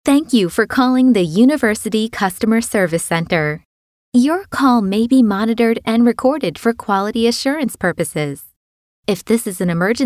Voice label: us english ivr